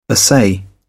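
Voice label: "assay", British English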